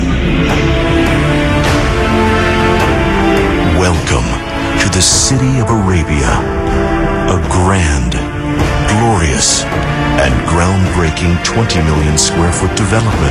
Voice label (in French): Pub en anglais pour Dubai